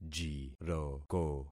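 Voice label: "jiroko", concatenated syllables; Affixing experiment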